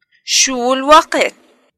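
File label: what time is it, Arabic, Palestine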